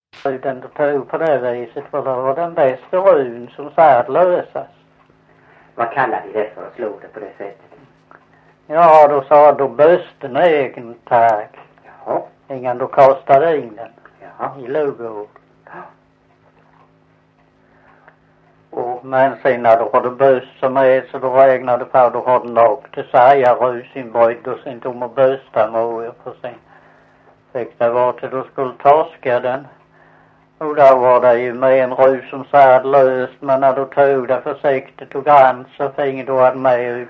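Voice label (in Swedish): Visseltofta Skåne, Svenska sagor dialekter; Folkminnen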